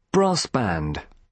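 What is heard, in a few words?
"brass band", British English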